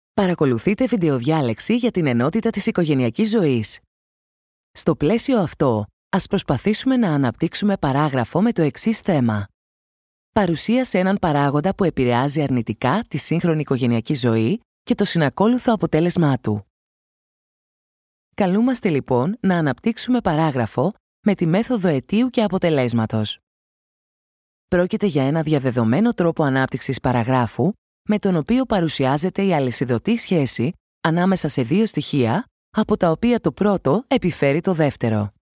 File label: Greek female voice, e-learning